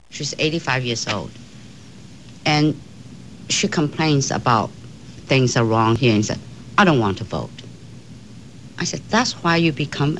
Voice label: Asian Chinese, dialect